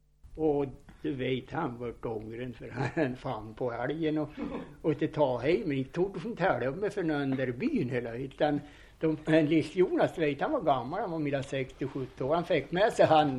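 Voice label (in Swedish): Sundsjö Bodsjö Jämtland, dialekter; Folkminnen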